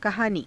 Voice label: "kahaanii", Hindi